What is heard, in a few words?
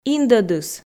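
"индӧдыс", Komi, female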